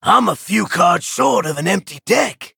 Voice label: Male Vulpera Emote Low Cards